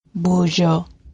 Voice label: hello, ojibwe; Bozhoo